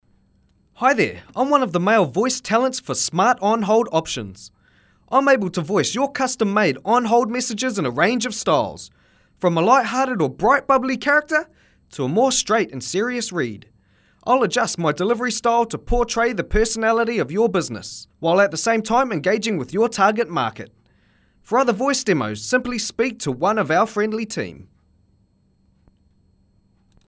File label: MALE